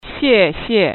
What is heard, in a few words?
chi thankyou